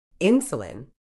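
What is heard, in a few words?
"insulin", British English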